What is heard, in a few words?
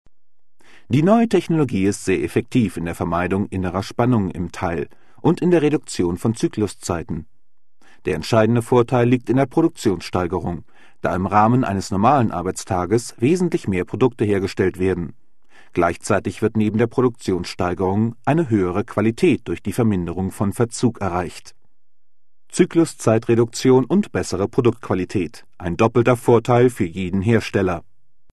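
German corporate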